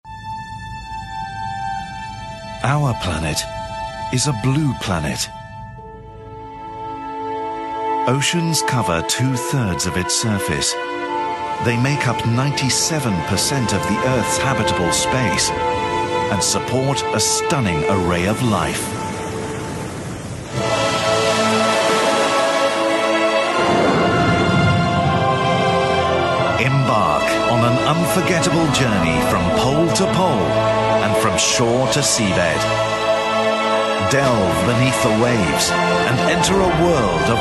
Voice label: trailer; bbc trailer